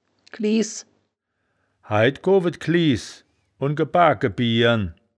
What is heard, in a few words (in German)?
"kliehs", wittlich